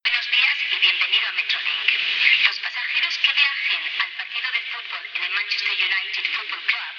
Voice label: spanish